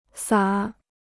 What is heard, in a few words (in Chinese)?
"撒", Chinese